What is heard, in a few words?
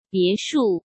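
"别墅", Mandarin Chinese; chinese-flashcards; hsk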